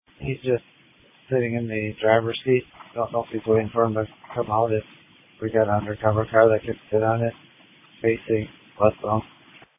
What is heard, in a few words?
Police Tactical Encrypted